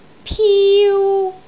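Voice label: "piu1", Cantonese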